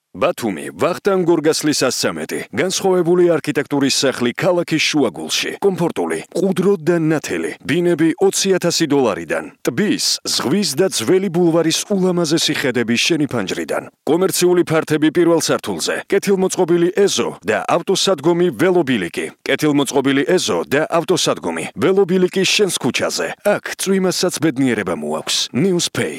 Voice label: Georgian male

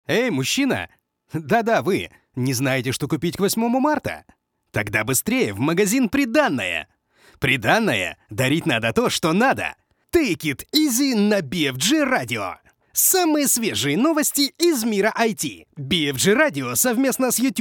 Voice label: Ru, golosa treki

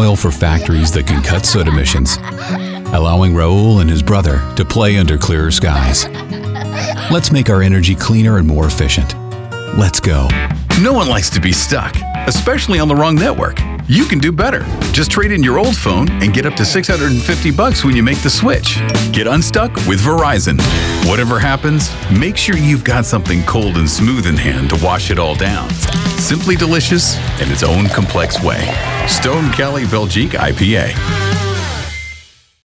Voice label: English - American

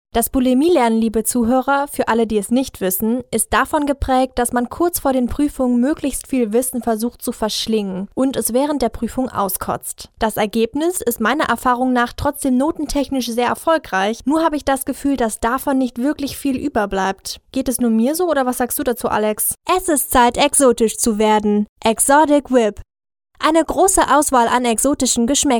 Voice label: German female

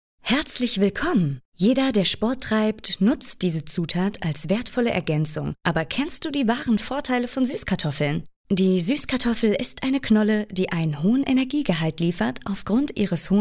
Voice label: German female

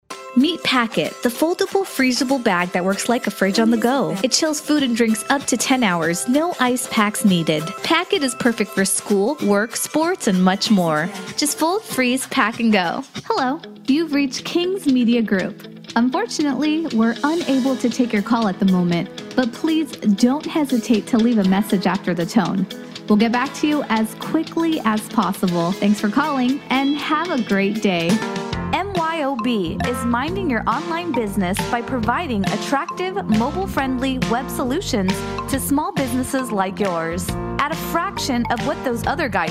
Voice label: English - American

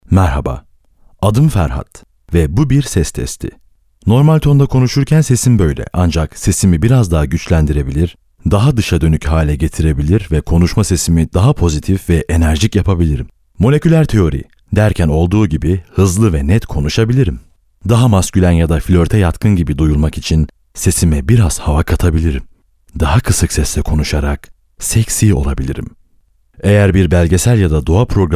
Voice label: Turkish male

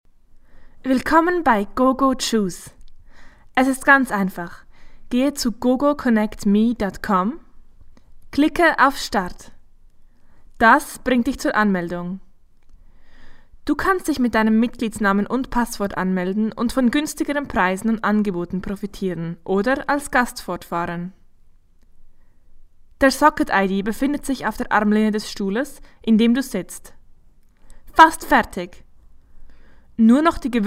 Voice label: German

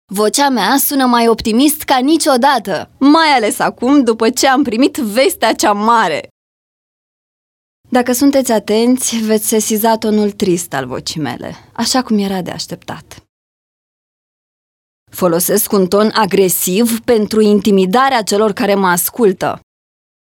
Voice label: Romanian female

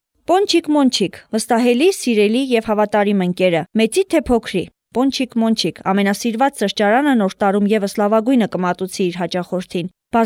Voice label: Armenian

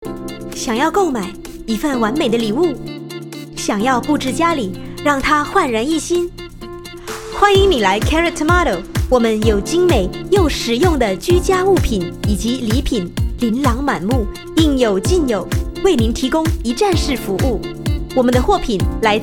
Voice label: Chinese Mandarin

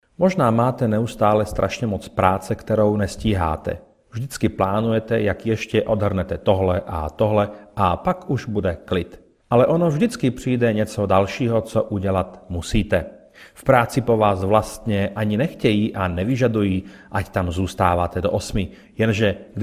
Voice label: Czech male

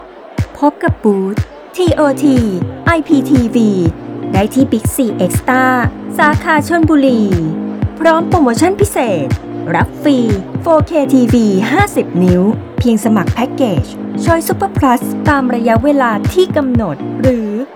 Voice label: Thai

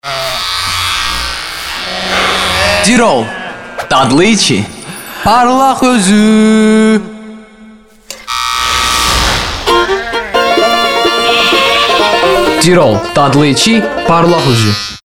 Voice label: Azerbaijan

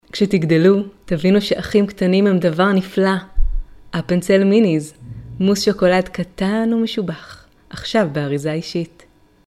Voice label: Hebrew

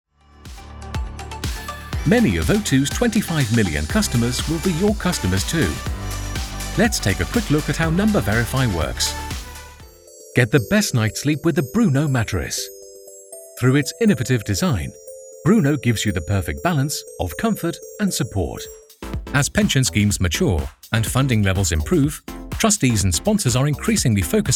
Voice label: English - British male

